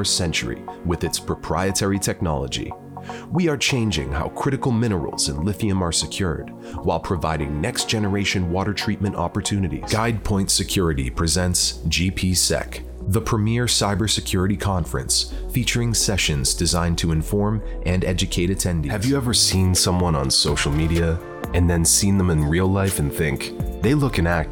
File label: Voiceover Portfolio